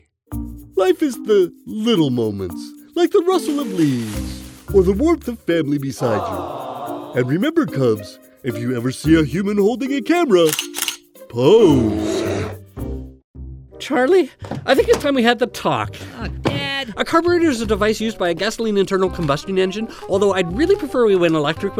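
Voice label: English, Canadian, Animation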